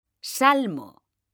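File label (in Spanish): "SALMO", Spanish; mekanta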